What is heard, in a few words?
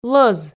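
"лӧз", Komi, female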